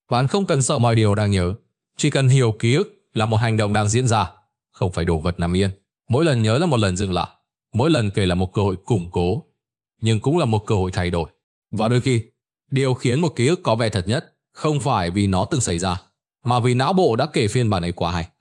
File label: narration; Vi Sao Nao Bo Khien Ban Nho Nhung Dieu Chua Tung Xay Ra; StickerMan